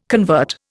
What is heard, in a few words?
"convert", Indian